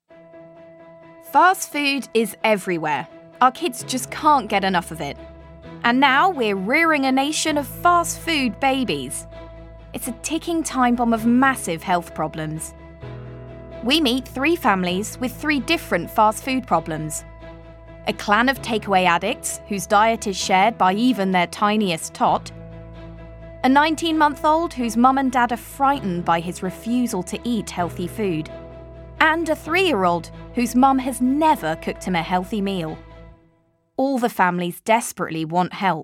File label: english british documentaries